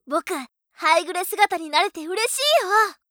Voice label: 僕、ハイグレ姿になれて嬉しいよ！, ショタ; ハイグレボイス集

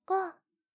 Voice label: 射精指示中カウント, ASMR; 難易度”普通”時

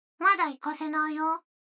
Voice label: 女の子台詞, ASMR

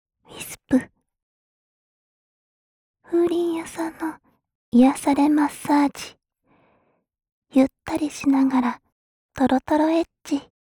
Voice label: タイトルコール

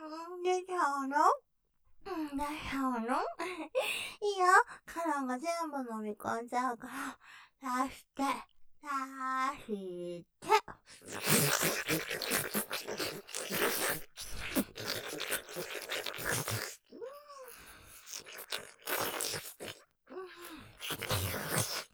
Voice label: 射精スパート 出ちゃうの?出しちゃうの? おねだり; ステレオ

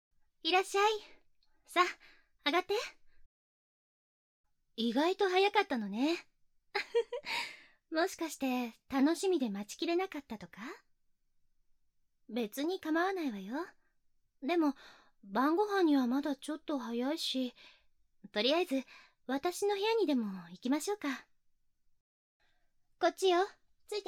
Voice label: 誘惑耳舐め

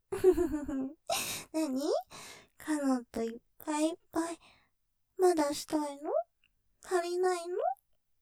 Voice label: 導入 いっぱいいっぱいしたいの?足りないの?ｗ; ステレオ

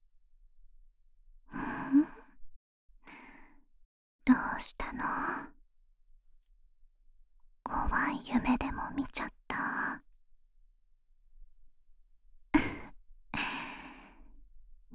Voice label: 眠れなかった時の甘々吐息+寝かしつけ（簡易モノラル編集）, ASMR

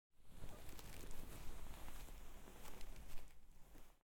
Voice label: 耳かき、してあげるね♪・右（耳かき）ASMR

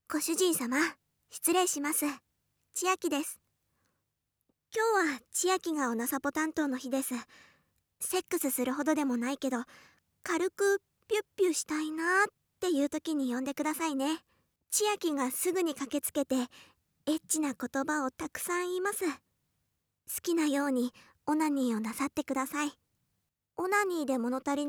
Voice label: ごあいさつ, ASMR

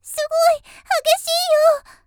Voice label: 少女, 元気な少女; すごい、激しいよ

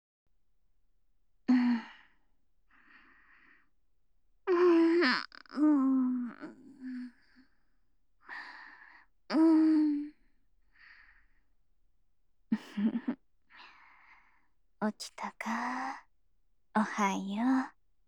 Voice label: 声のみ 左 おはようのちゅ; 特典音声

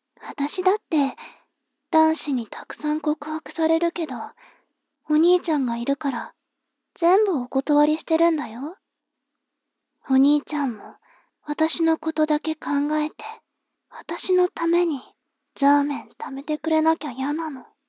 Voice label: 妹 台詞

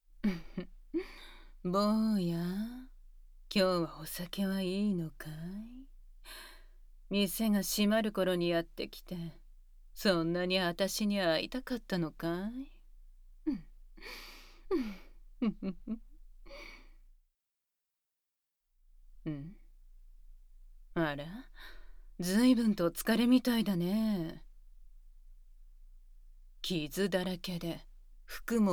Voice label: 乳首舐め, ASMR; 冒険疲れ

